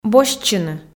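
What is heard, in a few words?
"босьтчыны", Komi, female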